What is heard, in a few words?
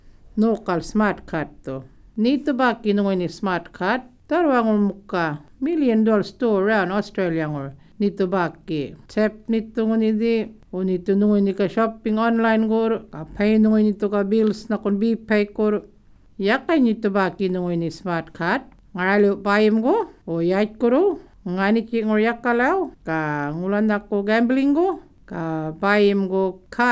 yolngu matha; using your smartcard